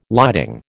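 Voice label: "lighting", American English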